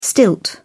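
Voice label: "stilt", British English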